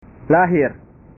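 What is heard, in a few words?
"lahir", Indonesian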